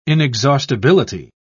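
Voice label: "inexhaustibility", British English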